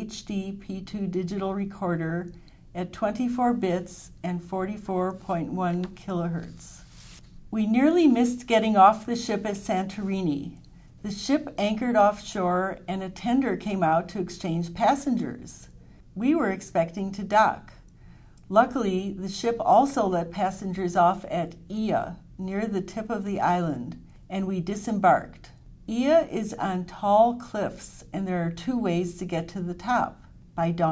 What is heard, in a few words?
Sanken COS11 left AT825 right female, microphones